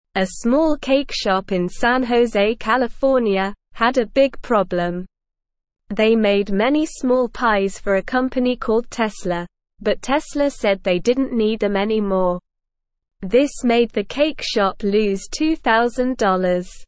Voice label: English Newsroom Beginner SLOW Reading; Cake shop gets help after big problem